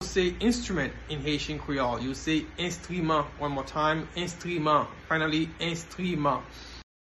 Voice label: Enstriman pronunciation by a Haitian teacher, Haitian Creole